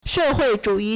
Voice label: "sh4hui4zhu3yi4", Chinese

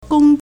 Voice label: "gong1", Chinese

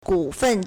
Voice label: "gu3fen1", Chinese

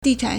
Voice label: "di4 chan3", Chinese

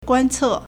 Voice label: "guan1ce4", Chinese

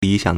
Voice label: "li3xiang3", Chinese

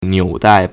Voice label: "niu3dai4", Chinese

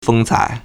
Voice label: "feng1 cai2", Chinese

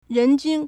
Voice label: "ren2 jun1", Chinese

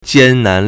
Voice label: "jian1 nan2", Chinese

